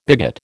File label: "bigot", American